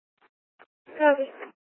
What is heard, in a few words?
Court Security Dispatch, scanner